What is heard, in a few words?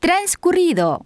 Spanish elapsed; samsung YP-U5; Audio User Interface